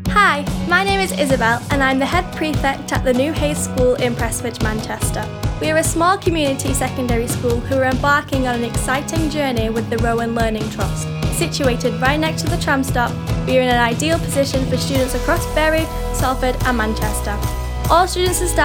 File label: Radio advert